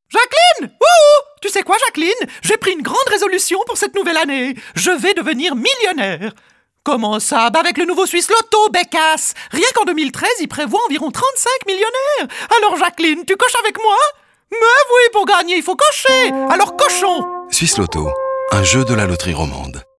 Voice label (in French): radio pub spot